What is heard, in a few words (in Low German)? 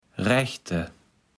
"rechte", Platt